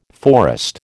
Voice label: "forest", American

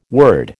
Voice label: "word", American

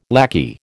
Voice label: "lackey", American

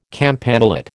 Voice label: "campanulate", American

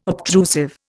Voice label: "obtrusive", Indian

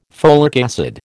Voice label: "folic acid", American

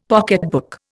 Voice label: "pocketbook", Indian; Dictionary

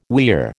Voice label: "weir", American

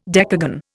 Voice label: "decagon", Indian

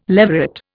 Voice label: "leveret", Indian

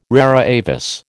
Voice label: "rara avis", American

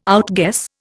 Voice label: "outguess", Indian

